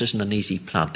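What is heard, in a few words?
"isn an easy plant", Northern Irish; ɪznənizi'plɑnʔ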